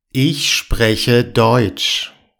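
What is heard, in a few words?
"ich spreche deutsch", German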